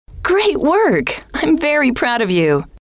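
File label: great Work I M Very Proud Of You, female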